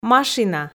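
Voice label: "машина", Komi, female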